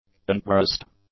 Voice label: "unpressed", english; adjectives